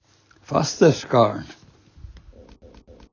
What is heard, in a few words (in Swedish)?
"fasterskârn", daladialekt; Så här uttalar man ordet fasterskârn; gagnsmal